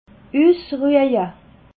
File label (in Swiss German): "usrueje", alsace